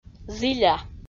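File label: "зіля", Komi, female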